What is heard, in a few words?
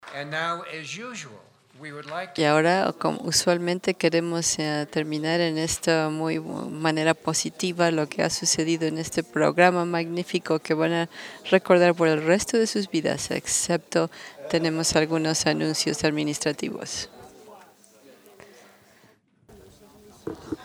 Spanish